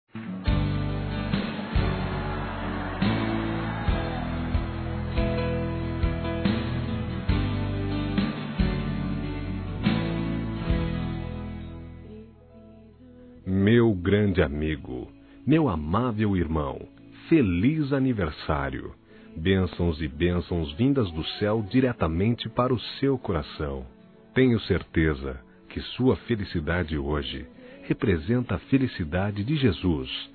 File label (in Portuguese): Amiga Evangélica Masculino; ANIV. Evangelico